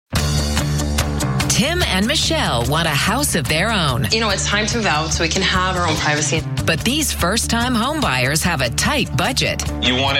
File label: narration female